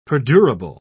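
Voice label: "perdurable", British English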